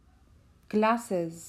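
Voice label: "Glasses", English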